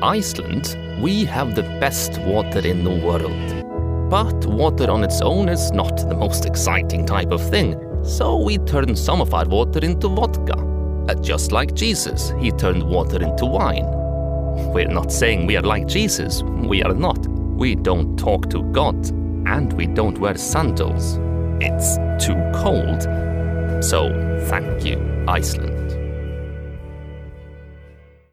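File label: Icelandic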